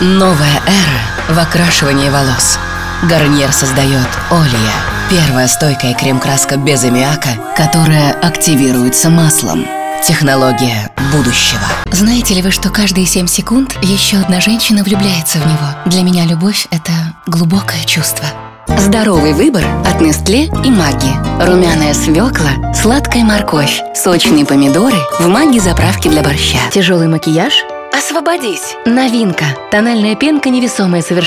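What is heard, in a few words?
Russian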